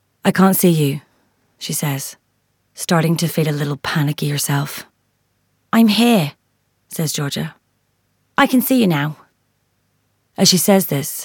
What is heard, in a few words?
Audiobook Reel, Irish, versatile, distinct, friendly